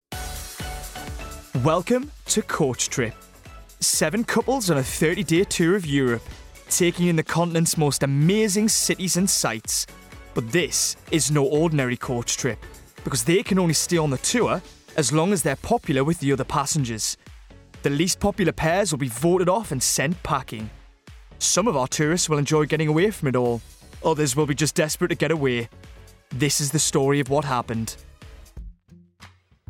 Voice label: Documentary Read